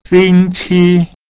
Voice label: "xing1qi1", Chinese

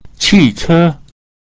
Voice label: qi che, chinese

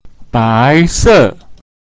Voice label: "bai2 se4", Mandarin; white colour

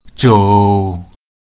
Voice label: "zou3", Mandarin; (to walk); pinyin

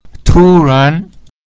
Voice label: tu ran, chinese

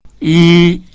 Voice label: "yi1", one, Chinese

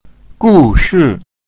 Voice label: gu shi, chinese

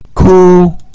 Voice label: "ku1", Chinese; cry

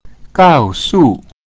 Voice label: "gao4su4", Chinese

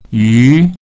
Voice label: yu fish, chinese

